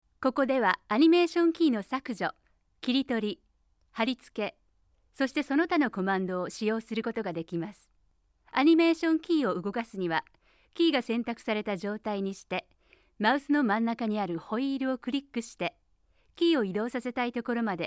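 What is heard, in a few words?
Japanese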